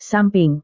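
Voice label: "samping", Indonesian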